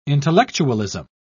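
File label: "intellectualism", British English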